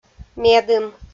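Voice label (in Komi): "медым", Komi-Zyrian, female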